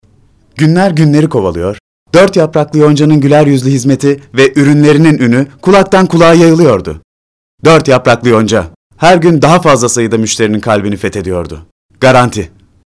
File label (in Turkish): reklam